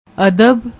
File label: "adab", Punjabi; ਅਦਬ